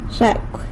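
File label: "zhacw", cockroach, San Lucas Quiaviní Zapotec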